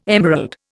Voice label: "emerald", Indian